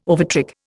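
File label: "overtrick", Indian English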